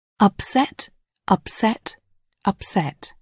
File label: "upset", English